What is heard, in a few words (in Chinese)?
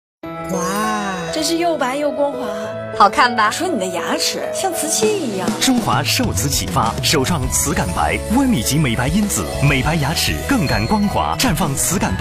男 广告, 国语男声配音; 中华瓷感白牙膏 姚晨篇